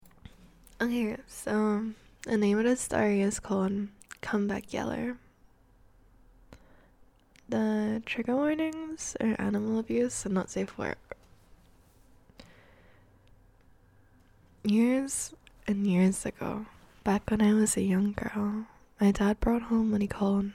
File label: ASMR stream